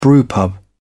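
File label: "brewpub", English, British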